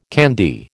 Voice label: "candy", American